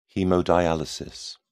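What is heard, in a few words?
"haemodialysis", British English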